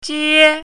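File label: "jie1", Chinese pinyin, first tone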